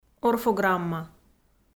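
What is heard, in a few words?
"орфограмма", Komi-Zyrian, female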